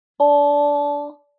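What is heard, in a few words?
"o", Mandarin pinyin; Perfect Pronunciation Pinyin Drills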